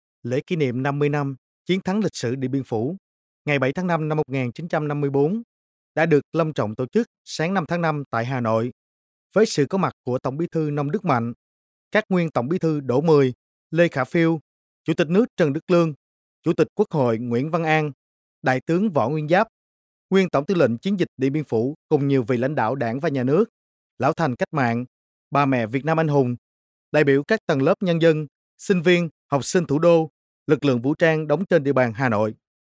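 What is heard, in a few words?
Vietnamese Vietnam male narrative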